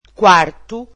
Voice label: "room", Portuguese

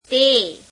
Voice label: gujarati he; તે [te]; Personal Pronouns

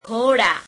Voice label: "horses", gujarati

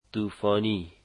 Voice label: "windy", dari

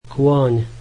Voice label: horse, slovak

